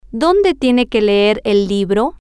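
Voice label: where does she have to read the book, Spanish, female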